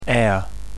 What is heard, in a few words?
phoneme pear; Phonology